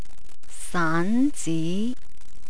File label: "saanzi", Cantonese